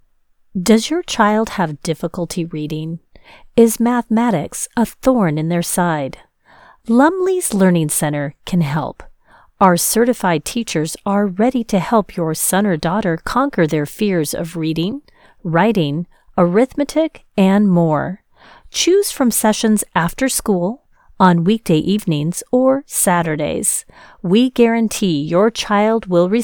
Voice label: Commercial